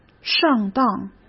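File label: "上当", Mandarin Chinese; hsk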